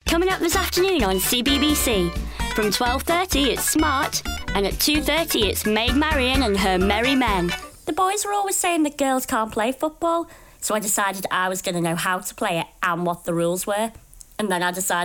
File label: english female voices british accent